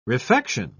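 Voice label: "refection", English, uk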